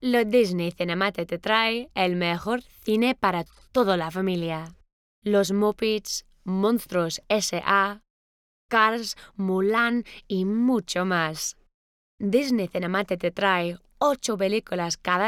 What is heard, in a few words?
spanish